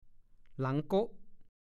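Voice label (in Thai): "หลังโก๊ะ" ภาษาโคราช; หลังโกง หลังงอ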